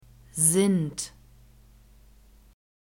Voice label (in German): "sind", German